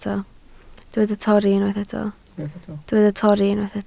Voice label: "torri", Welsh